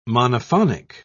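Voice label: "monophonic", British English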